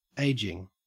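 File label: "ageing", Australian English; pronunciations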